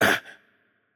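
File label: Japanese hurt light voice; bloom minigame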